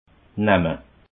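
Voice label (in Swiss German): "namme", Alsatian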